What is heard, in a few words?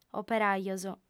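"operajos", Sardinian, female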